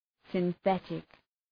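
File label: "synthetic", English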